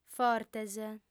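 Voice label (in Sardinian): "fortes", female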